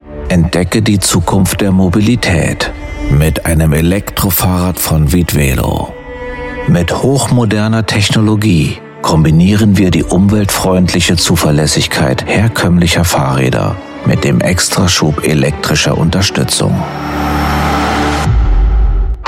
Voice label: German corporate videos